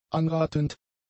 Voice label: "anratend", German; adjectives